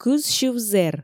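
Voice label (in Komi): кызсьыв зэр, Komi, female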